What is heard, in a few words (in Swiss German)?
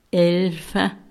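"elfa", Alsatian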